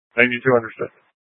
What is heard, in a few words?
Light Rail Emergency, scanner; Channel 6; Simulcast F- Tempe